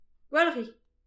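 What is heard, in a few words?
"gwalc hin", Breton; petit precis de prononciation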